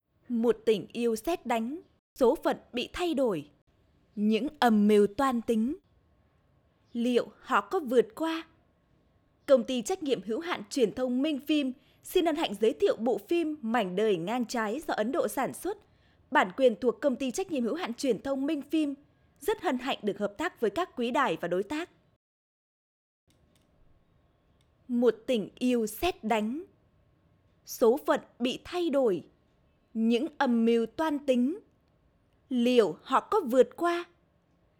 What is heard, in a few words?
Northern accent; Ha Giang